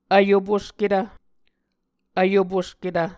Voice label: "vyoposketv", Muscogee

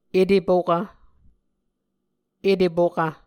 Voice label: "etepokv", Muscogee

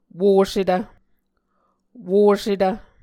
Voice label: "wosetv", Muscogee

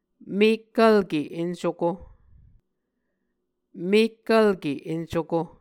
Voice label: "mekkvlke encuko", Muscogee

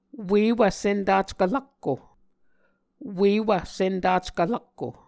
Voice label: "uewv sentackv rakko", Muscogee

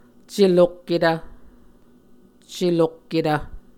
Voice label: "celokketv", Muscogee; Mvskoke Words with Repeated Consonants; A Dictionary of Creek/Muskogee; SIL International; Onvkv Ohhvyayices

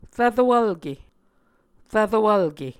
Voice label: "rvro vlke", Muscogee

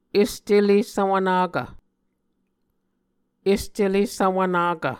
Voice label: "est ele svwvnakv", Muscogee

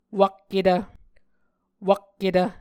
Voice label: "wakketv", Muscogee; A Dictionary of Creek/Muskogee; SIL International

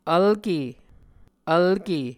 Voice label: "vlke", Muscogee

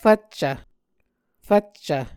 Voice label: "fvccv", Muscogee; Onvkv Ohhvyayices; A Dictionary of Creek/Muskogee; SIL International

